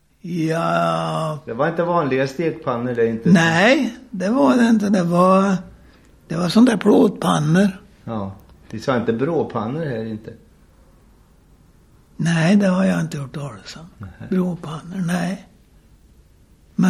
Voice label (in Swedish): Häradshammar, dialekter; Folkminnen